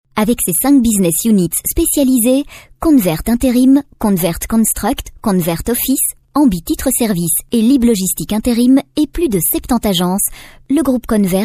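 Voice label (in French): Belgian French, female, narration; voice demos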